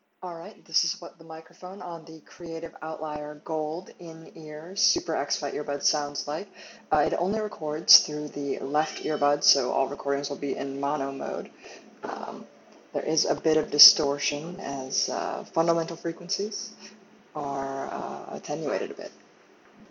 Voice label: Creative Outlier Gold microphone